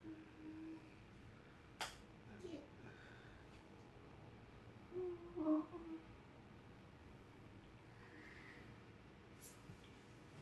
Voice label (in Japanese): ピロートーク ※男性声大; エッチシーン無し Audio Denoise